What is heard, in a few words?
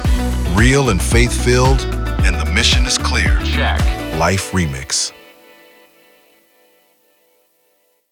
sweeper